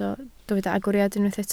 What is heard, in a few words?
"agoriad", Welsh